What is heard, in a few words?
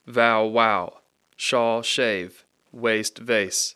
vow wow Shaw shave waste vase, English, Rapid Sound Practice; Speech Pro